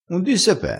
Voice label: "pe", crespinese

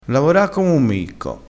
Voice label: "micco", dialettale crespinese

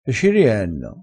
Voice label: "e ci rienno", dialettale

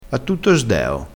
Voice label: "a tutto sdeo", Crespinese

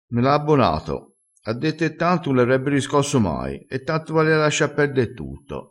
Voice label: "abbona", Crespinese

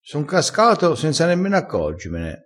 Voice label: "casca", crespinese

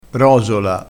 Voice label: "rosola", crespinese

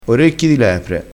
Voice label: "orecchi di lepre", crespinese

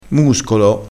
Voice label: "muscolo", crespinese

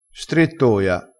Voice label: "strettoia", vocabolario dialettale

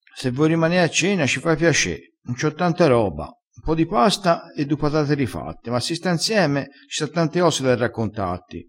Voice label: "piace", crespinese

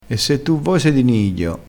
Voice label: "di nidio", dialettale

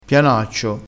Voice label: "pianaccio", vocabolario dialettale

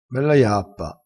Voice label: "bella iappa", crespinese

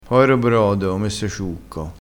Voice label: "brodo", crespinese

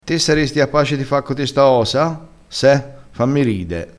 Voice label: "fammi ride", crespinese

